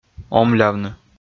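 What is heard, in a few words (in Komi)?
"омлявны", Komi, male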